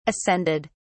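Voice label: "ascended", British English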